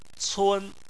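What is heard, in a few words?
"cun" first tone, Chinese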